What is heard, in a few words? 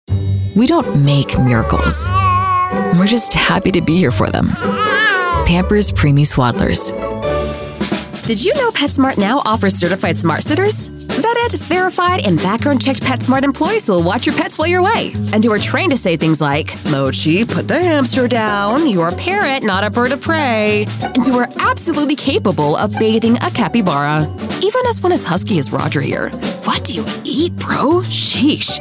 Commercial; Vietnamese-American voice actress based in the Gulf Coast area